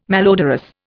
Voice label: "malodorous", Indian